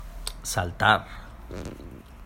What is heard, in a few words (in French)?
espagnol sauter